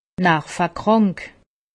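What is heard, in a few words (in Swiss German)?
"nervekrank", Haut Rhin; medecine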